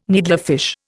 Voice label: "needlefish", Indian